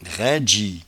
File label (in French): "raindgie", patois jura